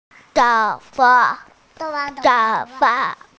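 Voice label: "tou2 fa5", Mandarin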